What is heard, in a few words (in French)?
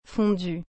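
"fondu", French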